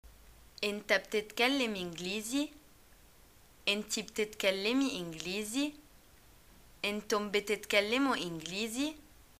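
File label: Egyptian do you speak English, arabic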